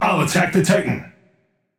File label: vox self attack; smite; ra; voicepack